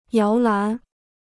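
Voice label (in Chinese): "摇篮" Chinese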